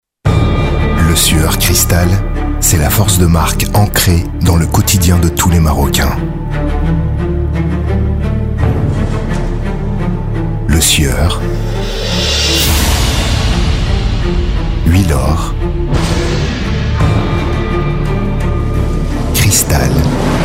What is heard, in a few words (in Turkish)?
Fransızca